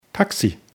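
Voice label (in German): "taxi", German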